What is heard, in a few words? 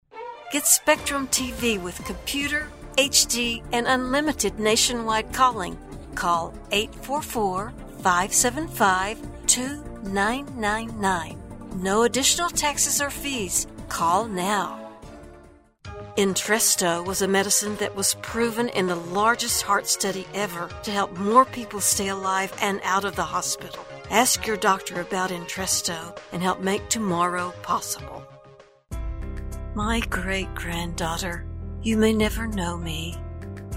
commercial